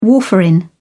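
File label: "warfarin", English, British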